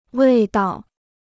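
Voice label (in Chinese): "味道", Chinese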